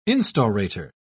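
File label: "instaurator", British English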